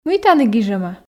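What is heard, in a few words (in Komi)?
мый тані гижӧма, Komi, female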